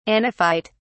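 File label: "anaphyte", British English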